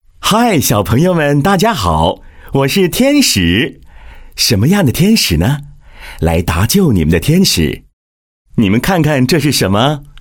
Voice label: Mandarin Fairy